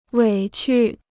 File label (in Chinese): "委屈", Chinese